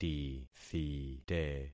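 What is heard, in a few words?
"tithite", concatenated syllables; Affixing experiment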